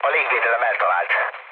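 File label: Hungarian damaged aa mood low, speech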